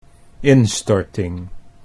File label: "instorting", Dutch